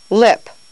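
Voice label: "lip", English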